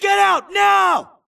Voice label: grunt grenade response; imc; Titanfall 2